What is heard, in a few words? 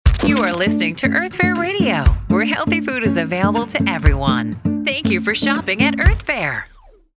Radio; KK Earth Fare Radio; branding